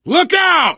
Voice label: lookout, survivor voice biker; Left 4 Dead 2

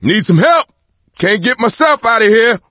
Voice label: call for rescue, voice; Left 4 Dead 2; coach

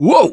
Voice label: Ledge Hang Slip, russian, Survivor Voice; Gambler

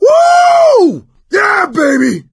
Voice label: battlecry; Left 4 Dead 2; coach

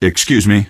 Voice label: "excuse me", male; npc; Garry's Mod; hl2

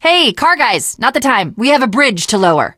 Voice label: finale chat, teengirl, voice; Left 4 Dead 2

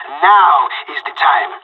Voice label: radio lock n load, pirate; Counter-Strike Global Offensive

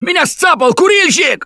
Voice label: grabbed by smoker, russian, Survivor Voice

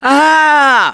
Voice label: Hurt Critical, russian; Gambler

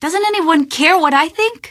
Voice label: question, female; Garry's Mod; hl2

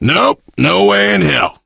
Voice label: initial meeting, survivor voice biker

